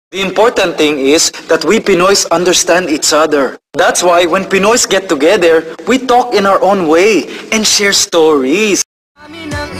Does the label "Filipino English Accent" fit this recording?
Yes